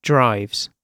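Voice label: "drives", British English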